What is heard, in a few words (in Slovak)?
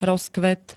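"rozkvet", Slovak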